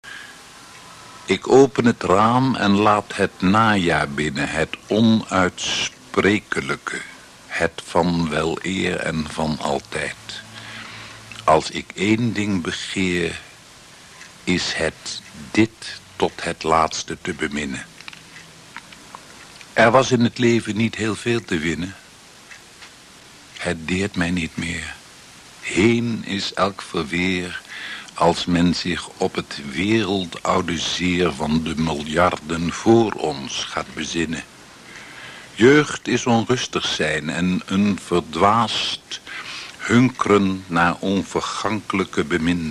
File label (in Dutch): voorgelezen; de gelatenen; carmiggelt